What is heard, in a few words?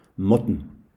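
"mutton", English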